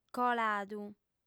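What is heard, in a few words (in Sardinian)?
"coladu", female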